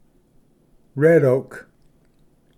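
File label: "red oak", English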